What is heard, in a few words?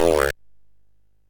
MOS6581R3, Electronic Speech Systems